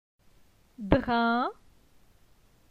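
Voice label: "Drin", French; phonetique; la syllabe in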